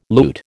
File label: "loot", American